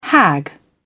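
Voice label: "hag", British English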